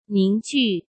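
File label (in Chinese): "凝聚", Mandarin Chinese; hsk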